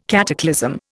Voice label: "cataclysm", Indian